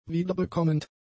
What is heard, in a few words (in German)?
"wiederbekommend", German; adjectives